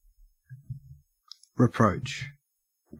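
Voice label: "reproach", Australian English; pronunciations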